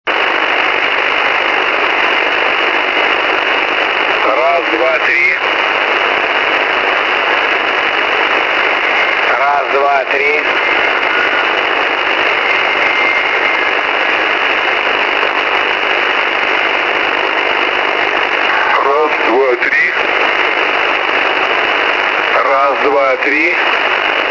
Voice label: sw russian ham calling

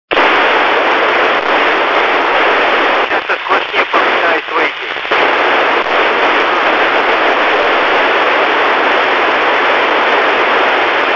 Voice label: milsat 261.94mhz fm russian

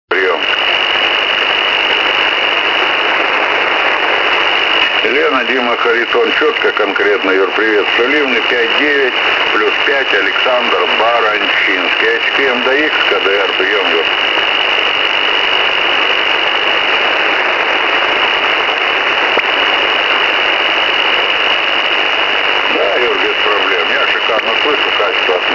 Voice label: sw russian ham

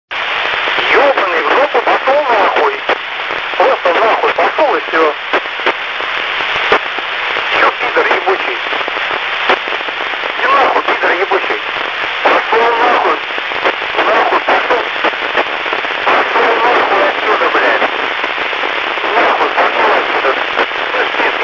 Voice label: milsat 251.85mhz fm angry russian

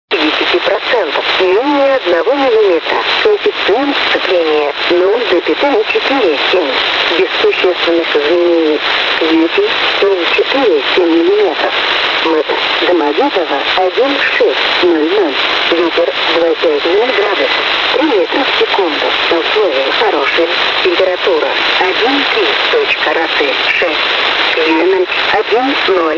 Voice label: sw recording russian

